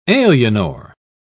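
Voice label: "alienor", British English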